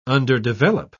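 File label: "underdevelop", British English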